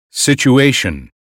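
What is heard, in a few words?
"situation", American English; 英语音标发音（剑桥版）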